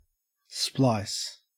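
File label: "splice", Australian English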